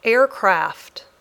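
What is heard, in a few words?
"aircraft", English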